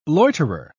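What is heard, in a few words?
"loiterer", British English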